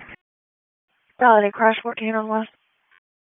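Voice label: Police District Dispatch, p25; Raleigh; Southwest District; wake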